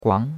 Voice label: "guang2", chinois; Cours-de-Chinois

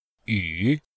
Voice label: "yu3", chinois; Cours de Chinois

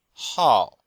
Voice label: "hao4", chinois; Cours de Chinois

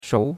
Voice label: "shou2", chinois; Cours-de-Chinois

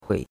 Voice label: "hui3", chinois; Cours-de-Chinois

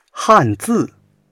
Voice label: "han4zi4", chinois

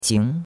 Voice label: "qing2", chinois; Cours-de-Chinois

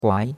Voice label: "guai2", chinois; Cours-de-Chinois

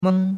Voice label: "meng1", chinois; Cours-de-Chinois

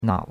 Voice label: "nao3", chinois; Cours-de-Chinois

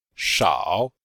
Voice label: "shao3", chinois; Cours de Chinois

